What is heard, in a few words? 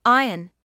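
"iron", British English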